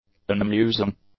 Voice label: "unamusing", English; adjectives

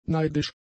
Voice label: "neidisch", German; adjectives

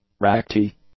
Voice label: "rackety", English; adjectives

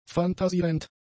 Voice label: "fantasierend", German; adjectives

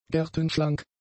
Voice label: "gertenschlank", German; adjectives

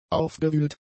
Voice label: "aufgewühlt", German; adjectives

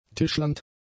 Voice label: "tischlernd", German; adjectives

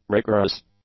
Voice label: "rigorous", English; adjectives

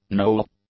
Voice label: "knoll", English; nouns

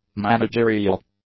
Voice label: "managerial", English; adjectives

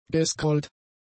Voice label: "gescrollt", German; adjectives

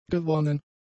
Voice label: "gewonnen", German; adjectives

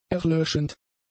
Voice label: "erlöschend", German; adjectives

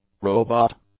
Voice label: "robot", English; nouns

